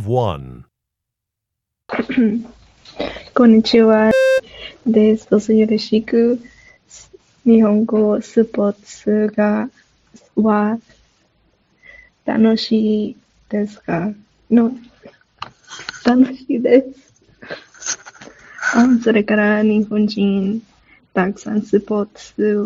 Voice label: japanese cultural presentation; AP Japanese Language and Culture